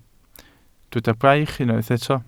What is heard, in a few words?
"braich", Welsh